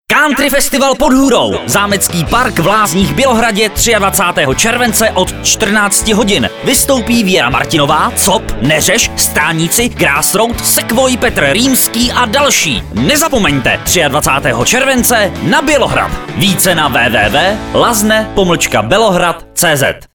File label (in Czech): spot radia; HIT radia Magic